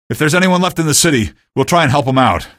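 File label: citizen evac no, male; vo; npc; source engine; episodic; episode 1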